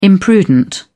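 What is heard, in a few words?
"imprudent", British English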